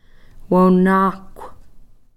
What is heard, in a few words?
"woownaakhw", old